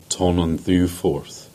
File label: "Turnavore", Cornish; place names